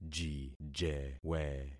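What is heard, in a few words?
"jijewe", concatenated syllables; Affixing experiment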